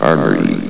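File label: "armory", male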